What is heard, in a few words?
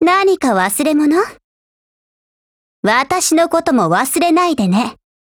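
dialogue, Japanese; FNFAL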